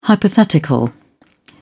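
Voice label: "hypothetical", British English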